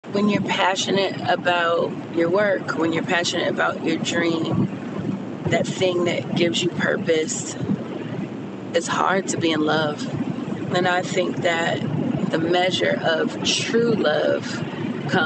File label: car chat